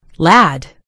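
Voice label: "lad", US English; gcompris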